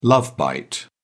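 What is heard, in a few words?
"love bite", British English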